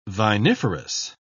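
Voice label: "viniferous", English, British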